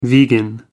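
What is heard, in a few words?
"vegan", British English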